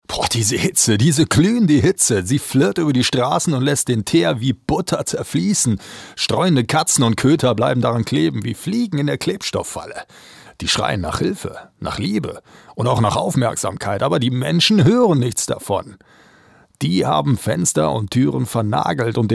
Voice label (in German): Schauspiel, Lebendig